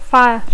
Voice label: "fire", English